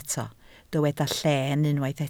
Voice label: "lleen", Welsh